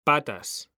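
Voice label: "patas", Spanish, male; mekanta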